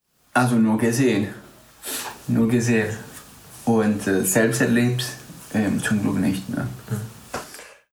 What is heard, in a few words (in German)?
spanisch guatemala